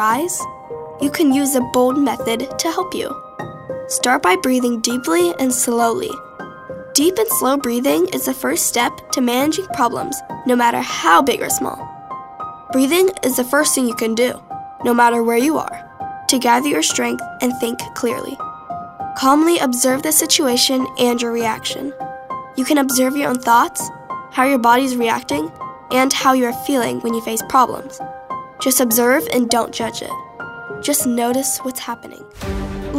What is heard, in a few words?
Showcase, Tween with some newfound rasp and a great attitude!